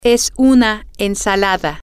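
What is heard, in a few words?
it is a salad, Spanish, female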